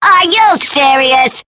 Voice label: are you serious, cartoon; questions